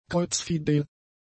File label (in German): "kreuzfidel", German; adjectives